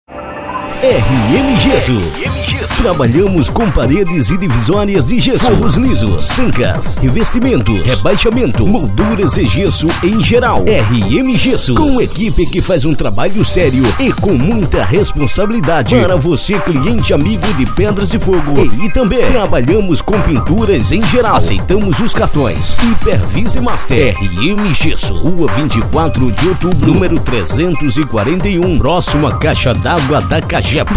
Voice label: spot; itambe pe

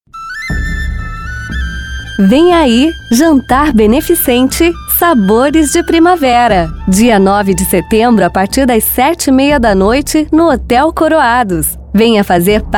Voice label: VOZ IMPACTO GRAVE vinheta spot chamada; vinheta para jantar espirita; vinheta para centro; comercial pro

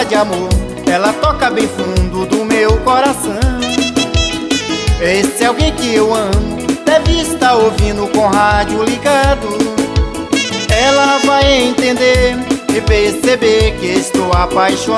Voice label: amigo locutor

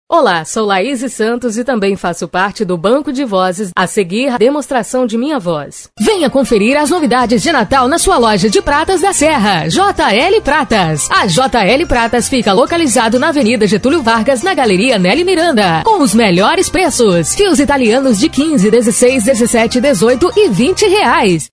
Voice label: locutora; studio danysat

